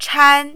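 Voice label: "chan" tone 1, Mandarin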